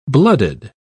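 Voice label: "bloodied", British English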